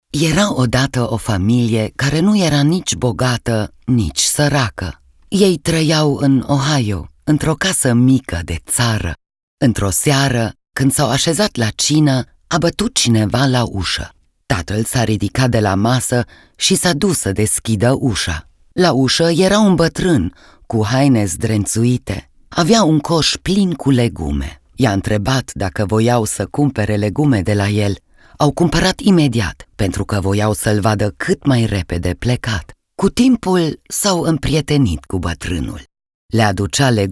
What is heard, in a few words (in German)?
Rumanisch Erzaehlung